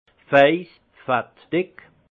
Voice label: "faist", alsace; vin; gras

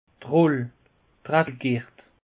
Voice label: "roll", alsace; peche

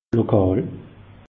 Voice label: "lokal", alsace; meteo

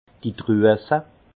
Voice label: "druese", alsace; vin

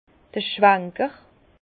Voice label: "schwanker", Bas Rhin; vin; nettoyeur de cuves

